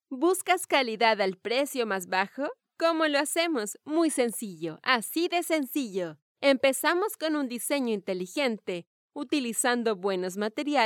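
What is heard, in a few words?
Spanish Latin America female voice over, upbeat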